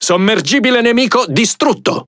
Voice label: Speech Destroy Enemy Sub, IT; World Of Warships